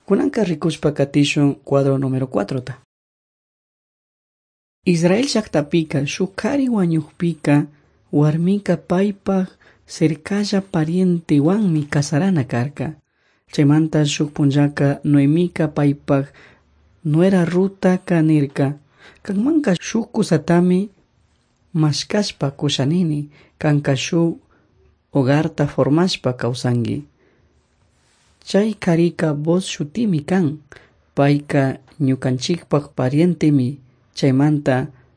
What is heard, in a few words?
servants of god quichua chimborazo